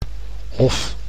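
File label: "hof", Dutch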